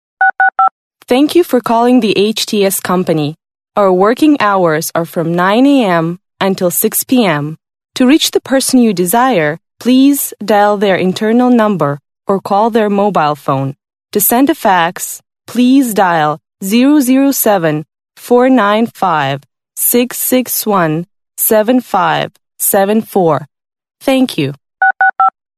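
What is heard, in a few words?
American, woman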